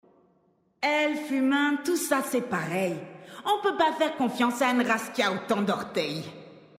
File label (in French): Female; Troll Zandalari; Blague